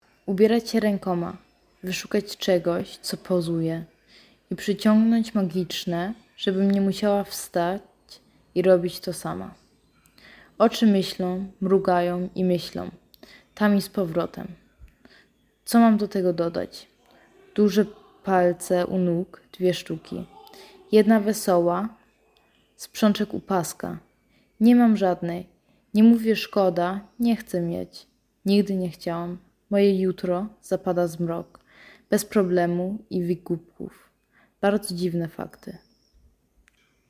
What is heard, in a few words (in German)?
polnisch